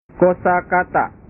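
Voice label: "kosakata", Indonesian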